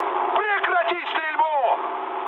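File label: player friendly fire, mood med, Russian; War Thunder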